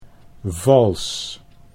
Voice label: "vals", Dutch